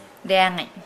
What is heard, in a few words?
Nukuoro; day